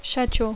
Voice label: "schedule", English